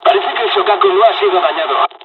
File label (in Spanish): dialogs spanish; War Thunder